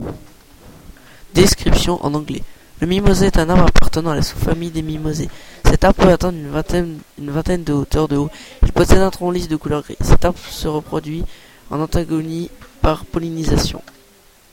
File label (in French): enregistrement en fr